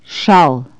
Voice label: scarf, Bulgarian Consonants

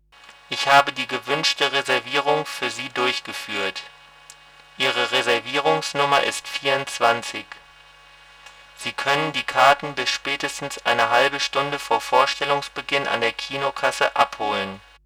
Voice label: slow; SmartKom; Prototypen Stimme

